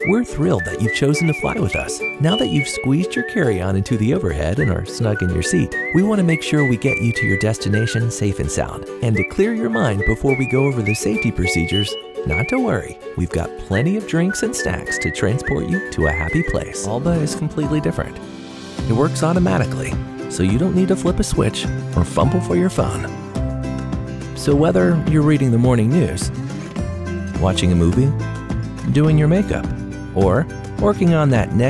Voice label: Narration